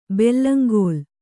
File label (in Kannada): "ಬೆಲ್ಲಂಗೋಲ್", Kannada